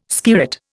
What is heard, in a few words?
"skirret", Indian